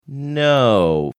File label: drawn out no